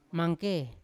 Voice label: "mangke", Yawa; heavy; unat